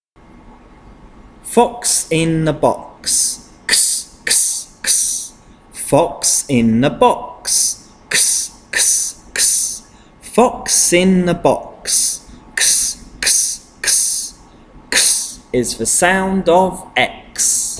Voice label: consonant chant x